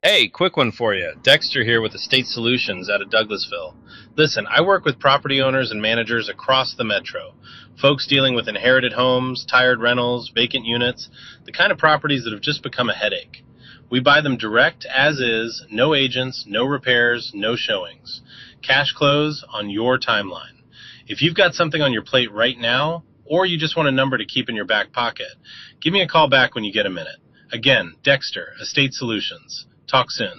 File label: voicemail